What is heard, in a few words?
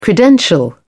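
"credential", British English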